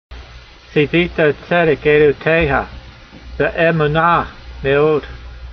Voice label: voice, hebrew language; Psalm 119:138; tseeveeta tsedek aydoteyha, ve'e'moona me'od